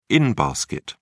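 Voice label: "in-basket", British English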